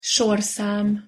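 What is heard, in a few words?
"sorszam", Hungarian pronunciation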